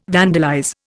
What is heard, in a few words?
"vandalize", Indian